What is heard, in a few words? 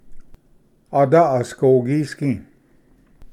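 "ada asgogigisgi", Cherokee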